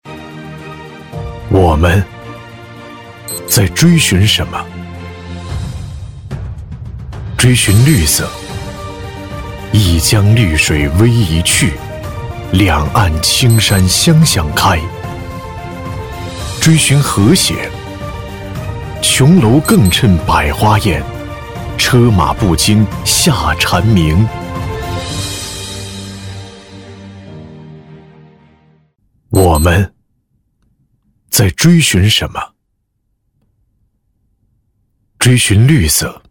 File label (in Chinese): 超质感广告; 环境日预热片